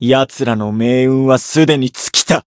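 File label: variable chant, Japanese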